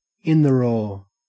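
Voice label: "in the raw", Australian English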